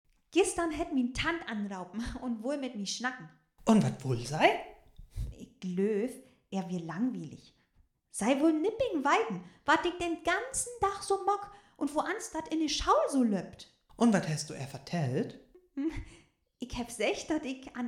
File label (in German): Dialog, niederdeutsch